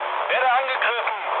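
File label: German aircraft damaged mood low, speech; War Thunder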